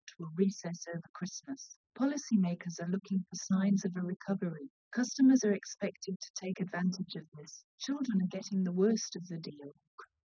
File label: female srec 130ms 20cm sim; SiSEC10; underdetermined